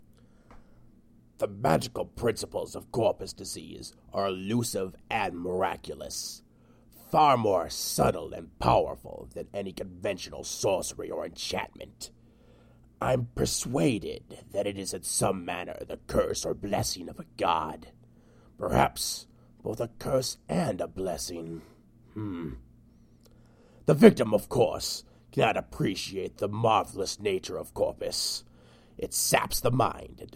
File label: dark elf voice auditions; divayth fyr